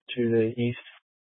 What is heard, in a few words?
Mesa PD Dispatch; Fiesta